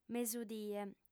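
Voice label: "mesudie" Sardinian, female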